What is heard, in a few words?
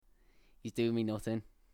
scouse